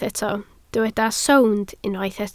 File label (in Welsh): "sownd", Welsh